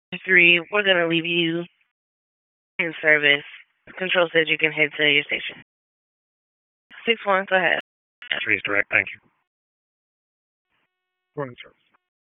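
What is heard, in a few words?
EMS DISP, radio; wake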